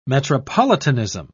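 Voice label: "metropolitanism", British English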